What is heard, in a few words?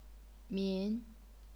"min2", chinese pinyin